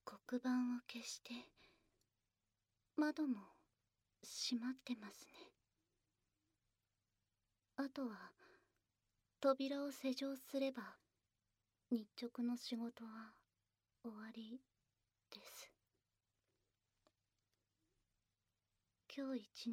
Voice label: toilet, ASMR